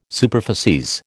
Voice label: "superficies", American

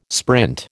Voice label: "sprint", American

